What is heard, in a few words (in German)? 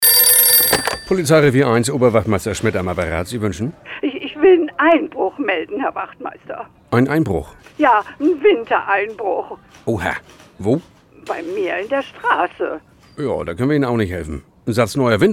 radiospot